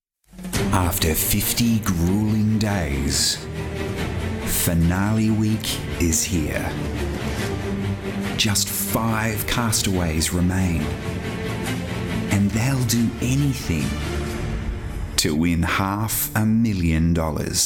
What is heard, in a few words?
PROMO; SURVIVOR